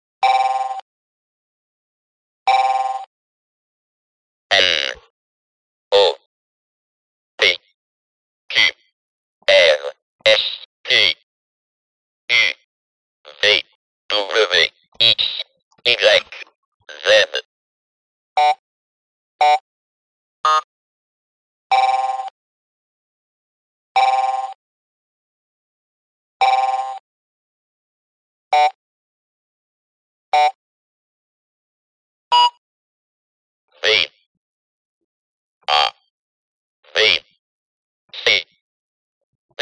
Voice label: Language Machine; Language Machine In French Mode Various Numbers, Letters And Tones.